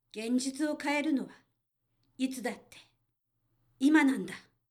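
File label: female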